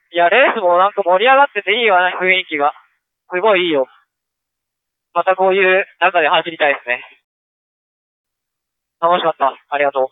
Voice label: race voice; toyota; superformula

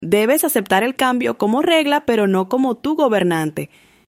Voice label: spanish

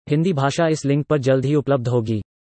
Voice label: hindi dialog; tpddl

